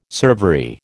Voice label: "servery", American